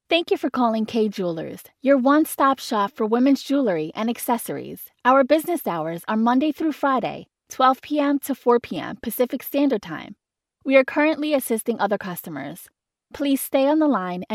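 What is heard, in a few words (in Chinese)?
外籍女英_外语_美式英语_自然; 品质生活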